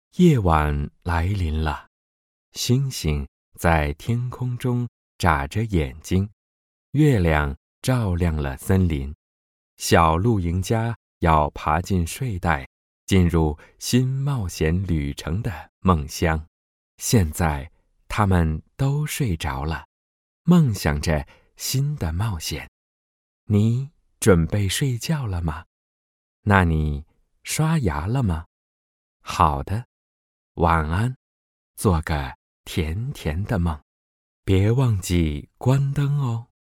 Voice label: CHINESE MALE

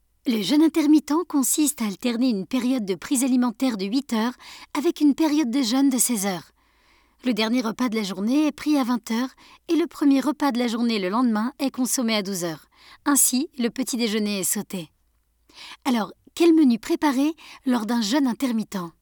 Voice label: TUTORIAL, FRENCH, FEMALE

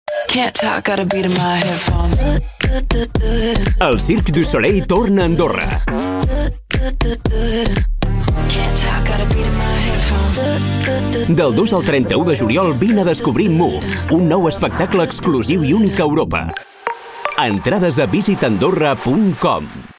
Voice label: commercial, Catalan, male

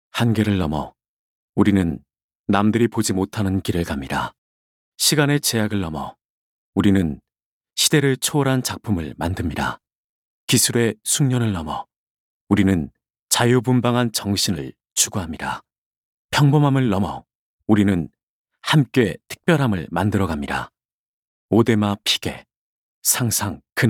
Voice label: KOREAN MALE

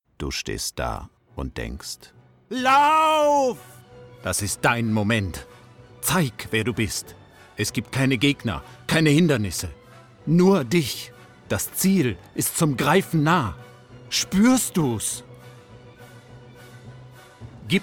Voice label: commercial, German, male

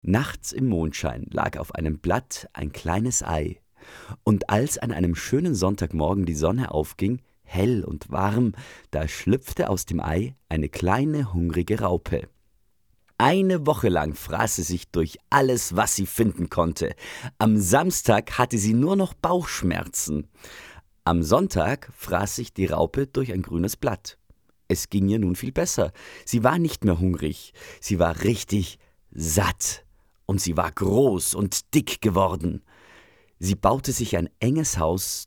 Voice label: informative, german, male

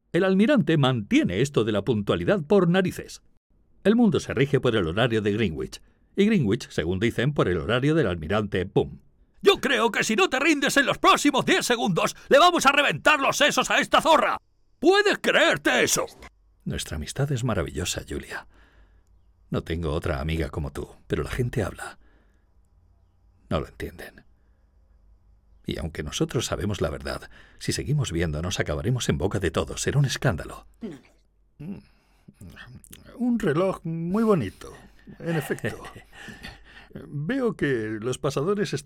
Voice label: dubbing, spanish, male

